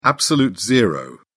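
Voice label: "absolute zero", British English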